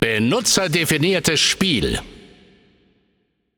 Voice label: Announcer German Mode Custom Game

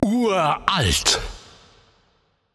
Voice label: Announcer German Lobby Card Ancient

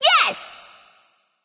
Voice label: Emote Yes; Dragon Doggo Kukulkan; Smite

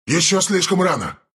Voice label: not yet, ru

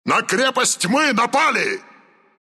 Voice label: ann dire ancient attack, ru; Dota 2

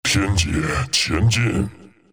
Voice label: move zh; Dota 2

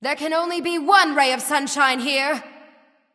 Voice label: Female, Taunt Directed; Valentine; Khepri; Ra

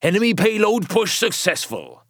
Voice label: Announcer Match Payload Enemy Successful; First Mate; Paladins

